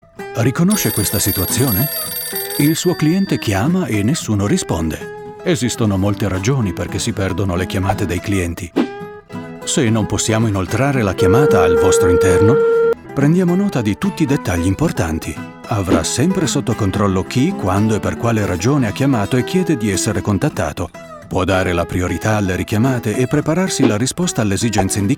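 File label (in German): Italian, Fremdtake Freundlich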